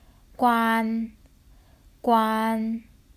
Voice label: "Kwan 關", Cantonese; The Duke Who Didn’t: Glossary & Pronunciation Guide

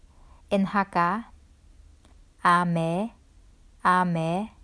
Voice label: "Ah Me 阿姆", Hakka; The Duke Who Didn’t: Glossary & Pronunciation Guide

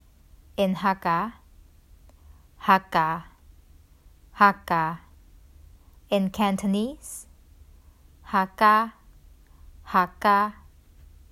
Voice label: "Hakka 客家", Hakka, Cantonese; The Duke Who Didn’t: Glossary & Pronunciation Guide

